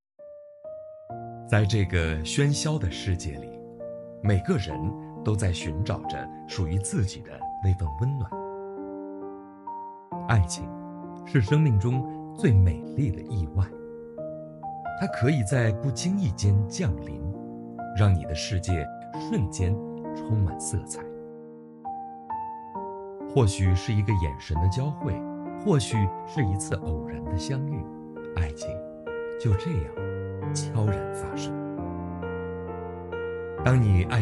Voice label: 情感文案配音; 有声书; 散文配音; 网文配音; 新媒体配音

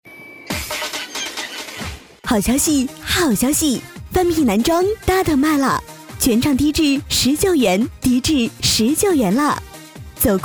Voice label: 促销 大气 质感 浑厚 男装大特卖啦; 范品男装

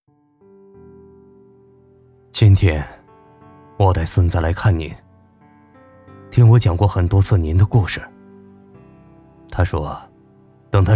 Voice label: 旁白类人物讲述缅怀

